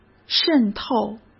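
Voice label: "渗透", Mandarin Chinese; chinese flashcards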